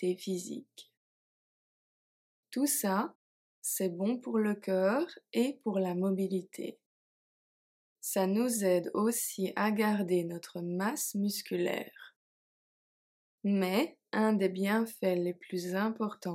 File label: French Speaking Practice Faire de lexercice